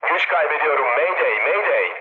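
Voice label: Turkish damaged engine, mood low, speech; War Thunder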